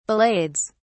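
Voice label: "ballades", British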